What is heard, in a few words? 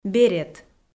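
"берет", Komi, female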